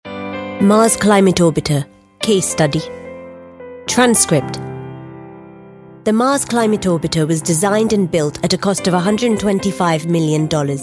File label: elearning